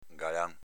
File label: "galan", dialetto veneto